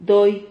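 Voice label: "doi", Spanish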